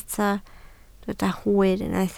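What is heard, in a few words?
"hwyr" Welsh, spoken word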